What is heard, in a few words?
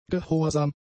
"gehorsam", German; adjectives